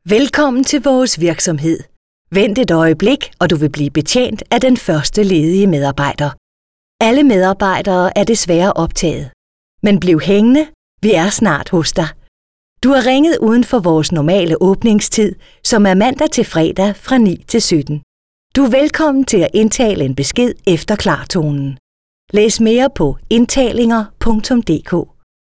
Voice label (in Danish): Formel kvinde, Speak; Speak demoer til indtalinger